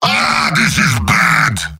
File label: heavy negative vocalization; tf2; mvm